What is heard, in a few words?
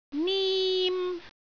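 "nim1", Cantonese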